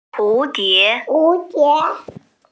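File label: "butterfly", Mandarin, hearing-impaired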